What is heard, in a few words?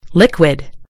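"liquid", British English; gcompris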